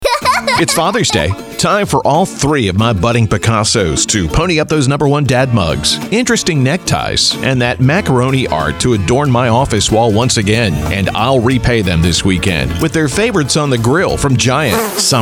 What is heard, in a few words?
Commercial